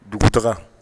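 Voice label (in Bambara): "dugutaka", Bambara word; actions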